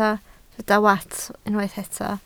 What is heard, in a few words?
"wats", Welsh